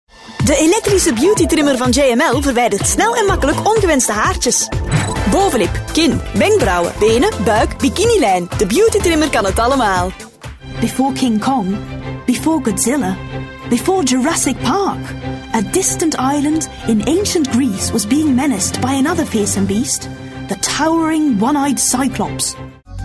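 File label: Flemish, female, showreel; voice over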